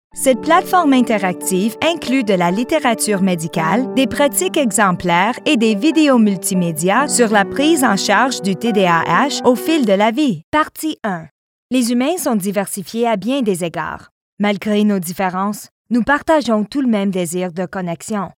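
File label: female French Canadian narration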